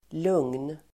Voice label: "lugn", Swedish